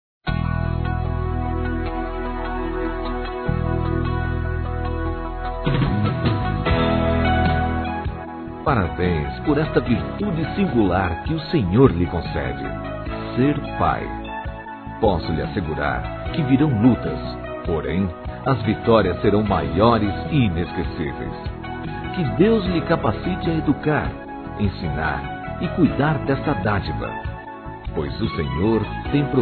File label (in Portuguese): A QUEM SE TORNOU PAI, Telemensagens Gospel masc